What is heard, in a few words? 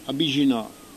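"avvicina", Corsican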